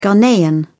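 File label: "ghanaian", British English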